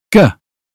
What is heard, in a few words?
uk phonetics sound give; 英语音标发音（剑桥版）